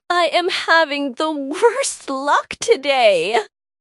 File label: upset; fertig classic games